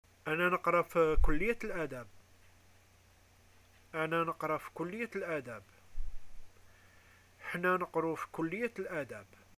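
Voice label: I am a student, Algerian arabic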